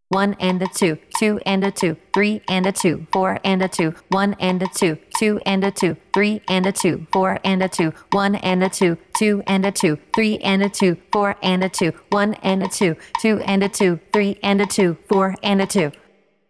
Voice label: Counting 3 against 2 spoken